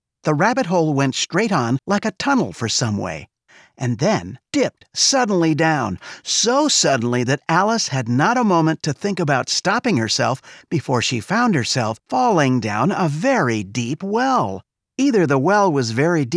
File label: Kids Ages5-7